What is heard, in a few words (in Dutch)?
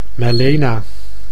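"melaena", Dutch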